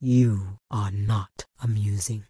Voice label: Tauren Female Official NPC Pissed

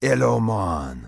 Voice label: Troll Male Shaman NPC Greeting

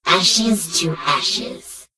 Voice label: Gnome Female Farewell; Death Knight

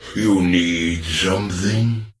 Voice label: NPC Death Knight Male Med Greeting

